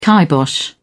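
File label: "kibosh", British English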